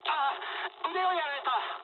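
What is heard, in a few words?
damaged pilot mood med, speech japanese; War Thunder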